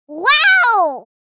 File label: "Wow" surprise, girl child kid